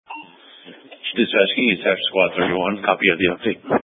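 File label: Fire Dispatch, scanner audio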